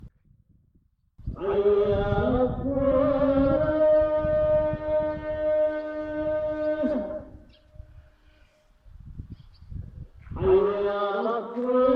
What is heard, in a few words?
muezin, Tunesien